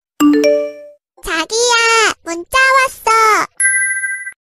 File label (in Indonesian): nada dering; chagiya muncawaso